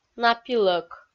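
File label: "напилӧк", Komi, female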